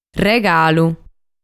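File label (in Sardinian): "Regalu", Sardinian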